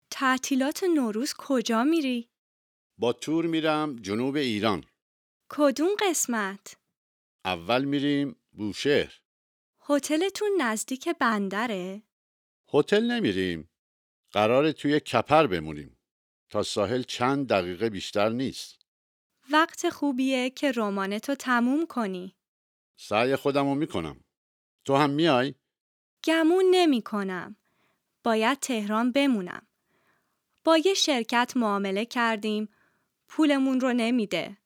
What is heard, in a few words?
Dialogue lesson Farsi